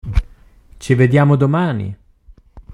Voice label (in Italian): italiano